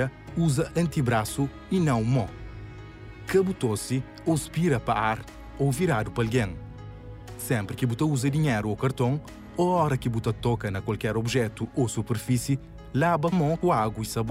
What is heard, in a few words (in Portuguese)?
Cuidado ao sair de casa, Crioulo Sotavento